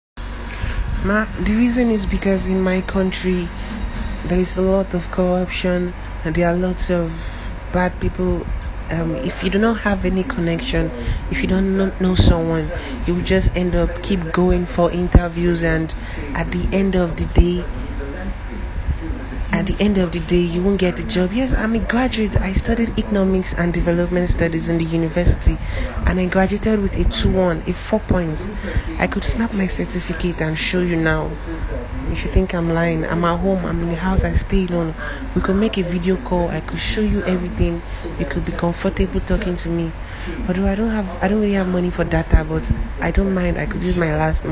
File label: audio Message